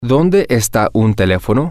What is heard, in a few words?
where is a telephone, spanish, M